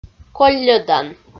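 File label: "колльӧдан" Komi, female; audio dict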